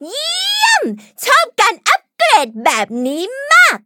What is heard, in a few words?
levelup, th; Cookie Run